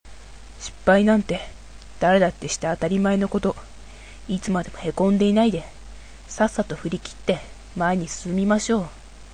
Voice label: boy; アレン・ウォーカー